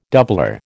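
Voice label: "doublure", American English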